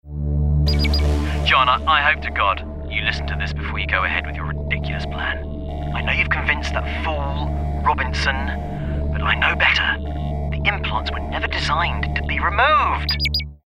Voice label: Gaming Character Scientist Neutral UK